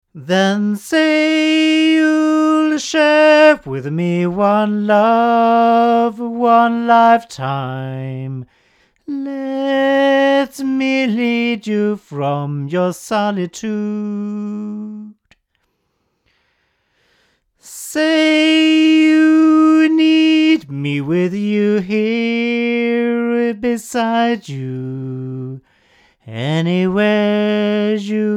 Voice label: male; CT Proscenium; microphones; Crowley Tripp